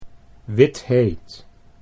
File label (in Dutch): "witheet", Dutch